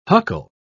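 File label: "huckle", English, uk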